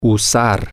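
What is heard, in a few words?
to use, spanish, male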